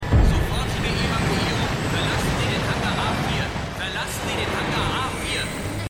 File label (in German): Durchsage; Stimmproben; Eureka